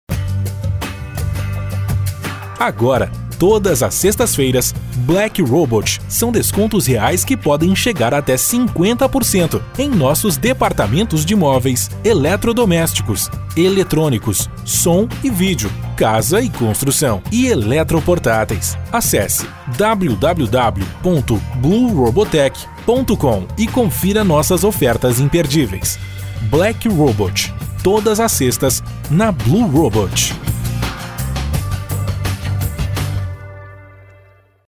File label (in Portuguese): espera telefonica voz trilha pra cima